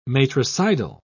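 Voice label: "matricidal", British English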